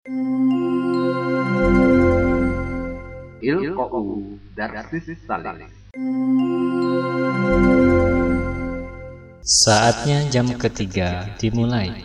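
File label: Arab Jam, bel sekolah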